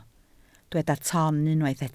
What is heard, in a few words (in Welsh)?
"ton", Welsh